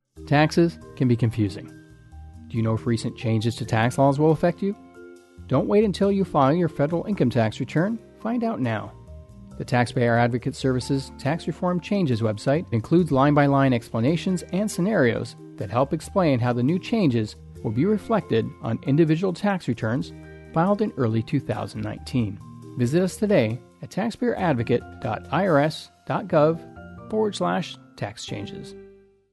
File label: PSA English with Music; 30 Seconds; Tax Changes